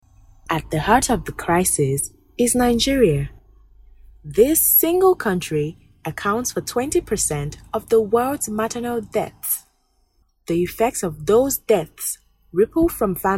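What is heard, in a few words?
African English, female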